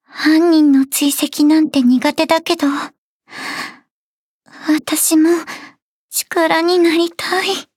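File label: start, Japanese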